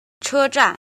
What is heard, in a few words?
"jin", Chinese